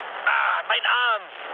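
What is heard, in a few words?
German damaged pilot mood low, speech; War Thunder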